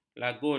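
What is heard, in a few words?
"lagun", Malay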